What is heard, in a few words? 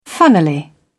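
"funnily", British English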